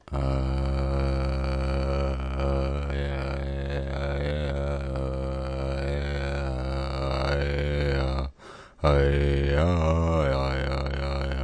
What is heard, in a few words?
boredom sucks, male spoken word; MALE MISC